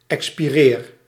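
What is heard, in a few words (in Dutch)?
"extirpeer", Dutch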